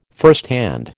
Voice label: "firsthand", American

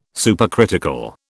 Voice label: "supercritical", British

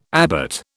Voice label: "abbot", British

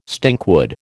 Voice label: "stinkwood", American

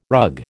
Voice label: "rug", American

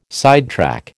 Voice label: "sidetrack", American

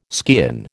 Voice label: "skean", American

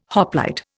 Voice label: "hoplite", Indian

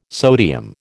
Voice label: "sodium", American

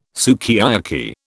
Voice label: "sukiyaki", British

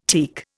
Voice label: "teak", Indian

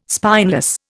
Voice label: "spineless", Indian

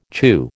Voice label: "chew", American

